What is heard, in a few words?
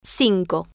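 "cinco", Spanish, female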